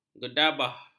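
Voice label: "gegabah", Malay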